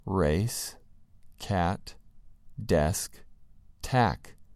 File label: "race" "cat" "desk" "tack"